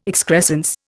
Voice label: "excrescence", Indian

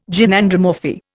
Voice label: "Gynandromorphy", Indian

